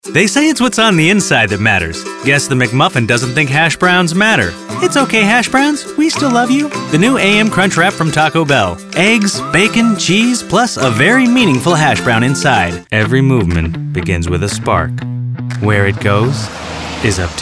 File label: Commercial